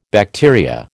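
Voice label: "bacteria", American English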